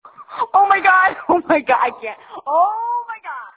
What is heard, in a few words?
Audio message